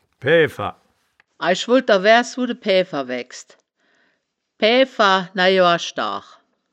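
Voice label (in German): "paeaeffer", wittlicher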